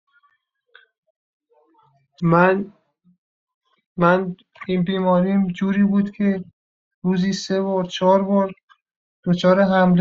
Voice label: panic treated patient voice about panic attack